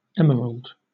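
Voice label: "emerald", British English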